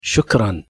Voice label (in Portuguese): pronuncia de obrigado arabic